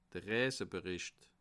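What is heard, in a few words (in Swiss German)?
"reisebericht", Bas Rhin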